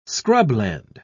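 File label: "scrubland", British English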